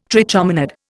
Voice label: "trichomonad", Indian

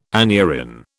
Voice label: "aneurin", British

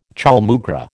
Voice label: "chaulmoogra", American

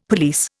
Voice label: "police", Indian; Dictionary

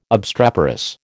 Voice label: "obstreperous", American

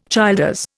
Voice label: "Childers", Indian

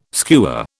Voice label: "skewer", British

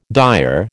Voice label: "dire", American

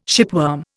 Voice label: "shipworm", Indian

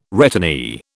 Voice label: "returnee", British

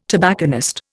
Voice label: "tobacconist", Indian

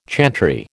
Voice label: "chantry", American

